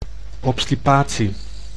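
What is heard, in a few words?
"obstipatie", Dutch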